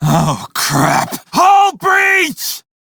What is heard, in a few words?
Crew See Breach, Male; Dialog; en; Warframe; Railjack Crew; Red Veil